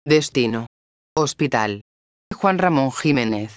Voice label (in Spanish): destino hospital, megafonias; megafonias exteriores